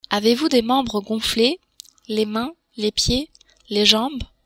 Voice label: membre gonfles, french

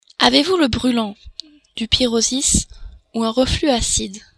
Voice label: "pyrosis", french

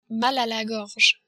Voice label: mal a la gorge, french

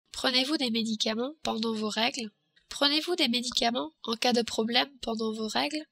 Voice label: medicaments regles, french

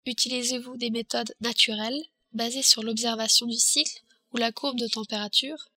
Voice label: methodes naturelles, french

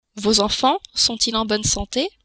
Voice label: enfants sante, french

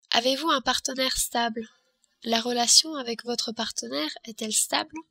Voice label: partenaire stable, french

